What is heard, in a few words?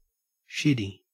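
"shitty", Australian English; pronunciations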